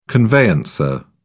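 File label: "conveyancer", British English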